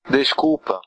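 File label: sorry, Brazilian Portuguese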